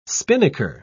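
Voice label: "spinnaker", British English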